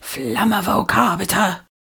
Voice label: "flamma vocabitur" whisper, incantations older female; fire spells; psfx